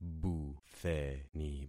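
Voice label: "butheni", concatenated syllables; Affixing experiment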